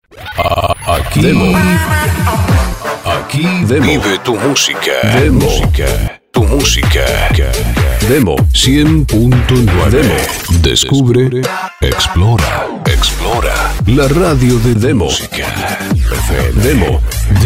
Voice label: PACK GENERAL NEUTRO; emisoras

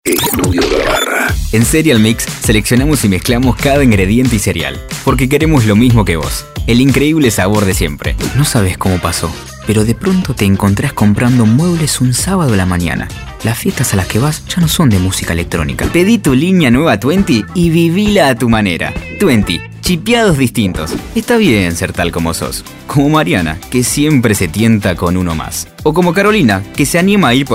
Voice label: Locutor Nacional; Voz versátil para todo tipo de grabaciones; DEMO GENERAL

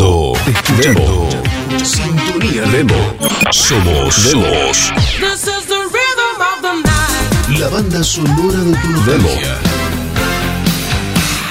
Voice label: ARTE PROGRAMA RETRO; Apertura, Cierre y 20 spots generales

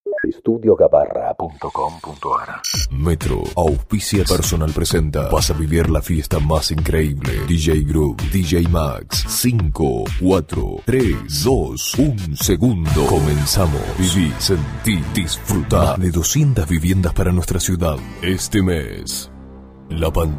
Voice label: Voces Masculinas

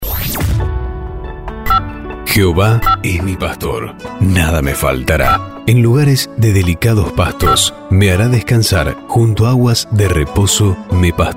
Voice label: PACK RADIOS CRISTIANAS

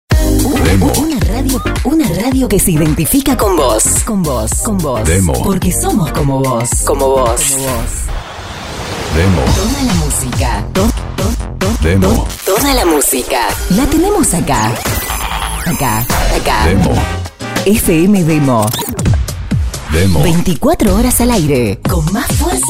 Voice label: PACK ARTISTICA GENERAL FEM; vestir tu radio con frescura y voz femenina; spots

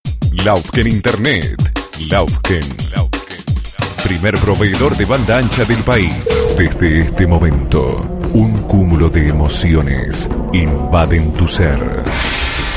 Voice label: Voces Masculinas

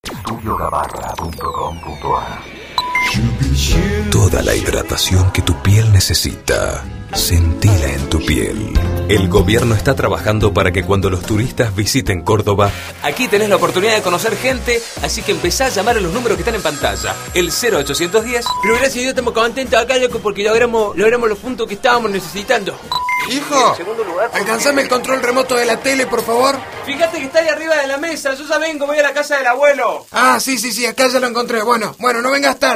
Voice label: Voces Masculinas

